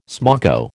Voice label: "smoko", American